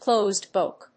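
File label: "closed book", English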